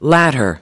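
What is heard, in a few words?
"latter", English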